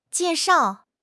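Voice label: "jie4 shao4", Chinese; HSK; HSK2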